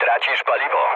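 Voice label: tank damaged, mood low, Polish speech; War Thunder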